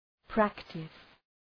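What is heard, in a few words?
"practice", English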